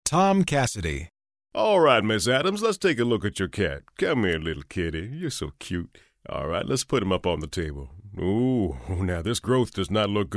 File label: soothing; Smooth